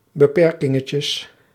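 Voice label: "beperkingetjes", Dutch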